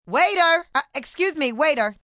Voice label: Excuse Me Waiter, female; Talking Sound Effects